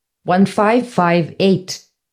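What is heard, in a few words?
time 1558, Indian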